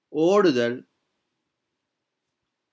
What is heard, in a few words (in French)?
lve tamoul courir traduction tamoul